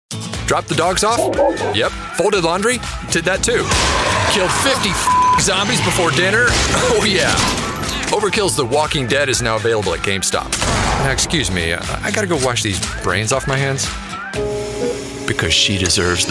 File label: english american commercial; english american voice actors